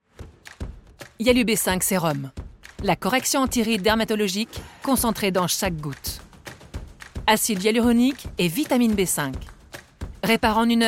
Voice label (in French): Publicite Cosmetiques; voix-off